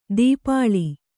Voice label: "ದೀಪಾಳಿ", Kannada

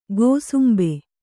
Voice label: "ಕುಸುಂಬಾ", Kannada

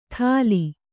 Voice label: "ಥಾಲಿ", Kannada

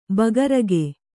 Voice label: "ಬೇಗುರಿಕ", kannada

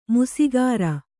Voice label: "ಮೀಸೆಗಾರ", Kannada

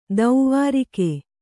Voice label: "ದ್ವಾರಿಗ", Kannada

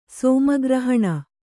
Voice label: "ಸೋಮಗ್ರಹಣ", Kannada

